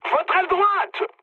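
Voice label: right wing, mood high, French; War Thunder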